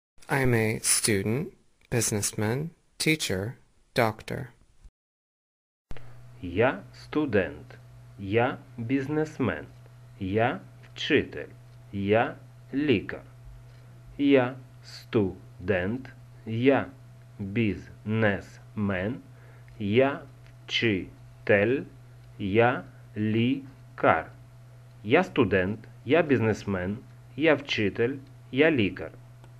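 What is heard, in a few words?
UKR I am a student businessman teacher doctor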